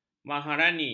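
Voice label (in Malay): "maharani", Malay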